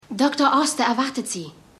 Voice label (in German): Empfangsdame